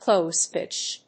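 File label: "close-pitched", English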